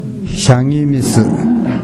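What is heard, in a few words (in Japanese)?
"yagimisu", 南山形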